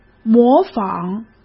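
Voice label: "模仿", Mandarin Chinese; chinese flashcards; hsk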